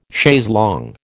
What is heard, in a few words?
"chaise longue", American